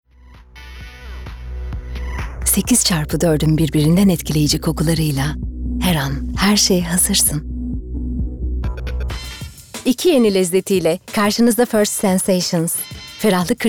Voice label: REKLAM

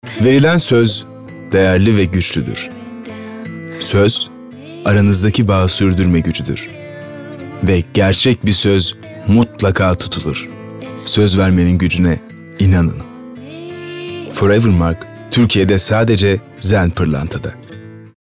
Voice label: Romantik

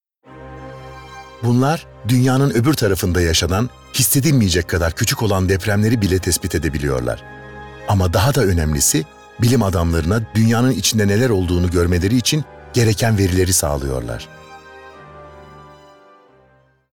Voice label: Belgesel

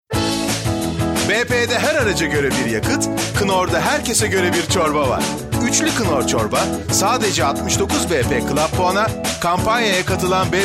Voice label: Neseli

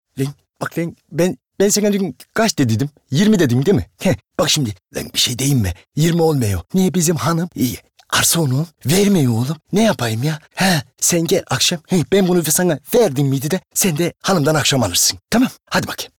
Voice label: Ege Lehce

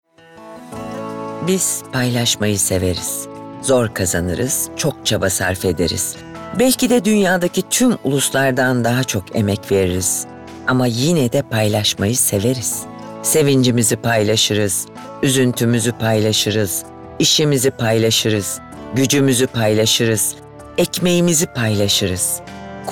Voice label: Tanitim

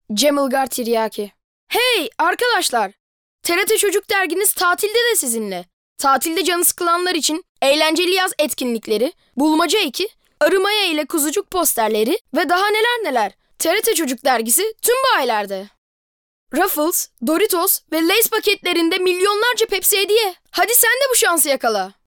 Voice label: Reklam

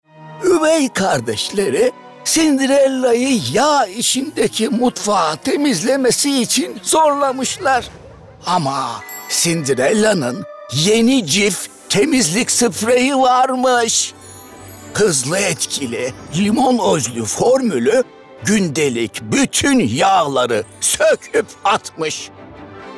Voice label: Komik

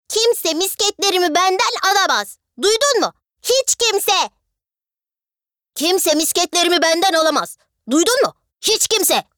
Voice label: Animasyon

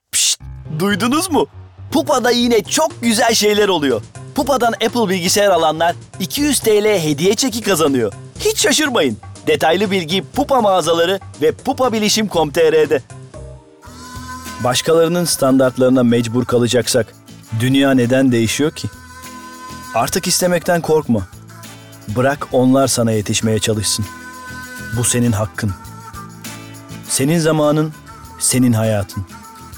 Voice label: Reklam

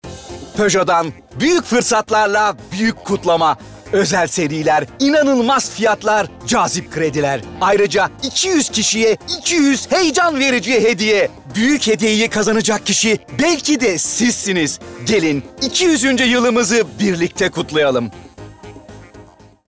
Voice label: Neseli

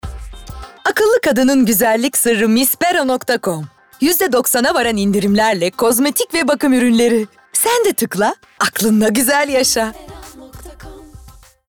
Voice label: Neseli

